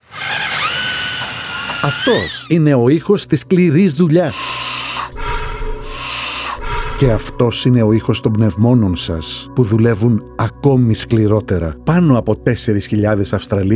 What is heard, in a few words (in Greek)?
RADIO GREEK; Greek Radio B